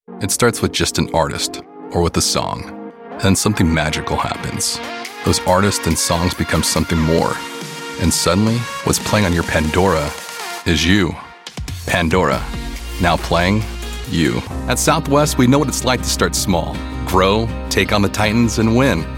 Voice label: Commercial VO